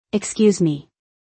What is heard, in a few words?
"Excuse me", English; Basic Greetings and Courtesy